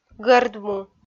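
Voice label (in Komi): "гӧрд му", Komi, female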